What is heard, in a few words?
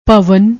"bhavan", Punjabi